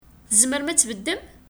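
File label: Kabyle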